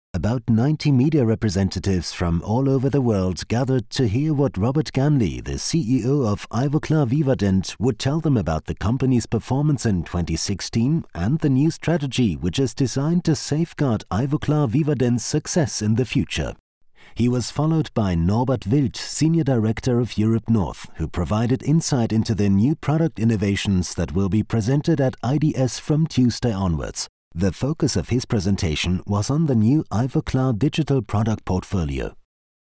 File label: sparberg englisch image event; LOFT VOICES